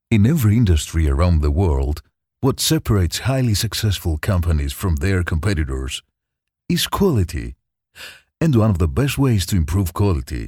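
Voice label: Greek, male, English with accent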